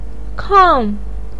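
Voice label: "kang" fourth tone, chinese, voice pinyin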